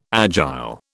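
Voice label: "agile", British English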